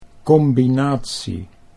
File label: "combinatie", Dutch